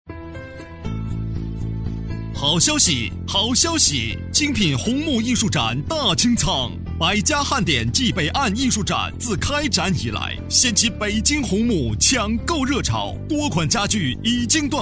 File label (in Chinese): 促销; 北京红木艺术展清仓